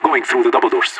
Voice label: going doubledoors, radio; LEET